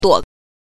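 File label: "duo", Mandarin Chinese pinyin, neutral tone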